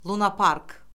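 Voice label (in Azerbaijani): "luna-park", Azerbaijani; Orfoqrafiya Lüğəti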